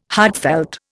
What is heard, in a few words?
"heartfelt", Indian